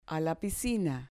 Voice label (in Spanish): "piscina", Spanish